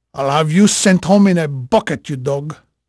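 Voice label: Portuguese male, voice; Pirates Of The Caribbean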